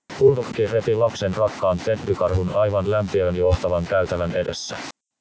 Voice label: noisy factory